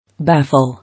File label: "Baffle", English